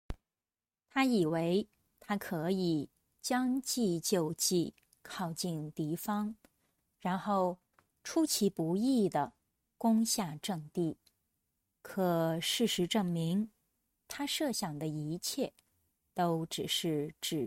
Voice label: denoised